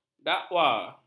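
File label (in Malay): "dakwa", Malay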